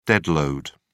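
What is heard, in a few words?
"dead load", en, British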